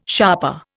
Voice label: "sharper", Indian

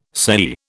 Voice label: "sei", British

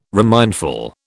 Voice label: "remindful", British

